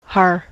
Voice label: "har", En-us